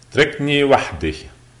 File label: leave me alone, lebanese arabic